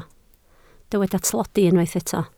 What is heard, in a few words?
"tlodi", Welsh